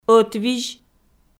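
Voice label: "ӧтвизь", Komi, female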